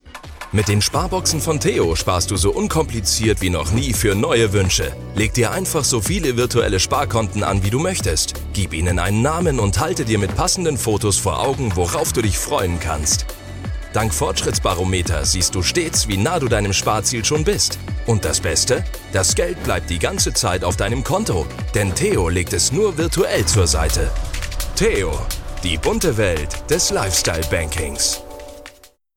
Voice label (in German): Imagefilm; TEO Sparboxen